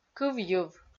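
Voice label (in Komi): "кывйыв", Komi, female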